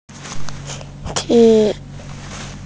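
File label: "ji1", Mandarin Chinese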